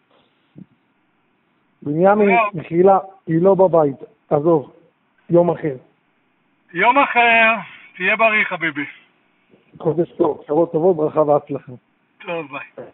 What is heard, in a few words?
Call recording